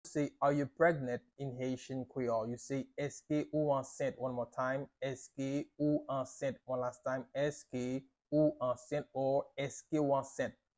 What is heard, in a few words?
Are you pregnant in Haitian Creole – Eske ou ansent pronunciation by a native Haitian teacher